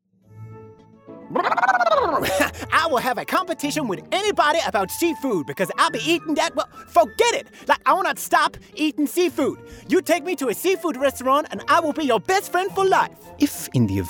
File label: male non binary english multiple accents animation compilation